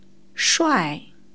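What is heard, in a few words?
"shuai4", Chinese; 帅; （男性が）かっこいい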